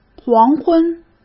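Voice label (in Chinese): "黄昏", Mandarin Chinese; hsk; chinese-flashcards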